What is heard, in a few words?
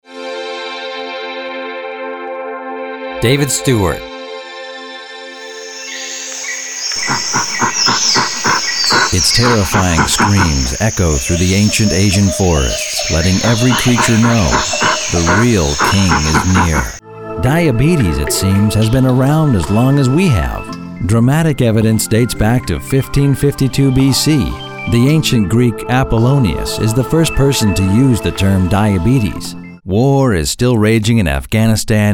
narration, voiceovers